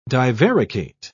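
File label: "divaricate", British English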